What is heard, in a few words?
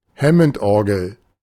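"Hammondorgel", German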